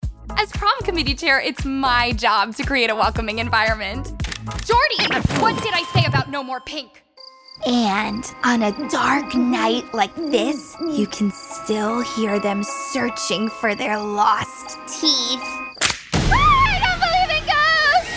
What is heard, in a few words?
Animation, female voices